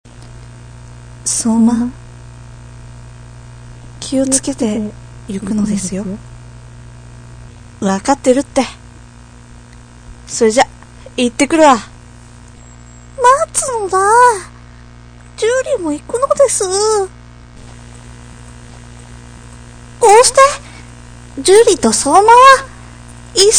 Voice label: narration; animation